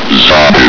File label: zombie; hunger; hgrunt